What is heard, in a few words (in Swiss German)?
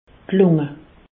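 "lung", Haut Rhin; medecine; poumon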